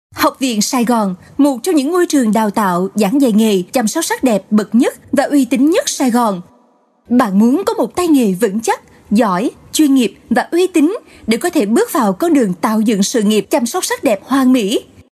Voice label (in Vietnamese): Nu Mien Nam; sai gon; Voice-off